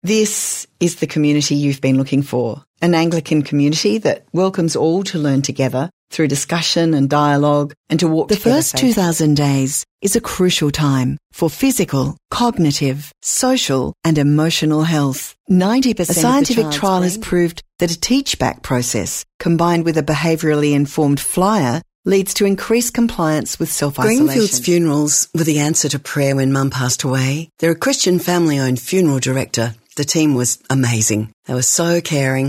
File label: Natural